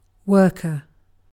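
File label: "worker", British English; pronunciations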